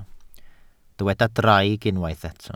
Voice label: "draig", Welsh